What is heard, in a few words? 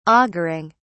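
"auguring", British English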